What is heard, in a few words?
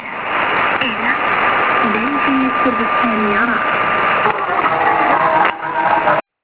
Voice Iraqi Kurdistan Kurdish